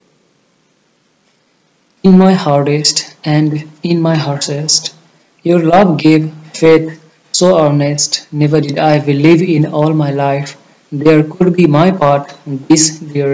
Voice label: Whispers